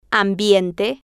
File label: "ambiente", Spanish